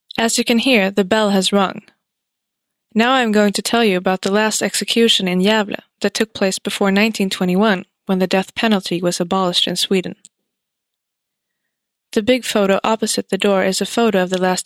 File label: cell, audioguider; eng